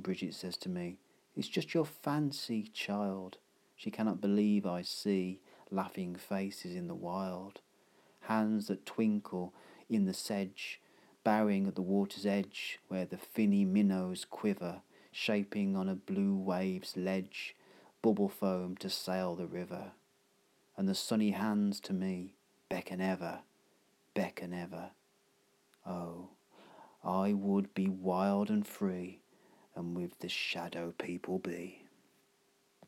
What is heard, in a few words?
late night celtic poetry